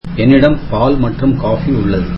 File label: tamil I have milk and coffee